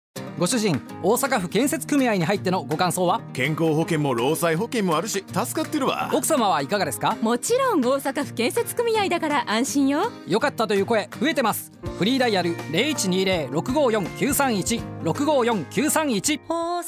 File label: radio; osaka